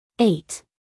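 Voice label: "eight", American English, female; 1000 hours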